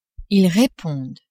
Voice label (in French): "ils repondent", French